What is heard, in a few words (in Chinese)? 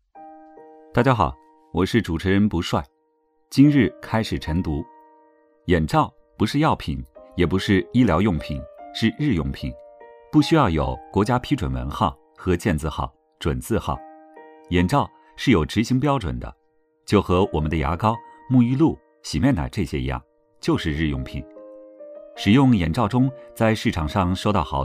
今日晨读